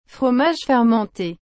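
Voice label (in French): "fromage fermenté", French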